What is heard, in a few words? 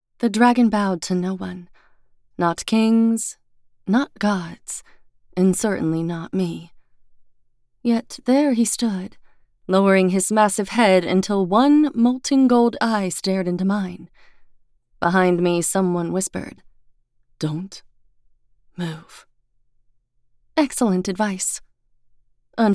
Romantasy MF 1st Person POV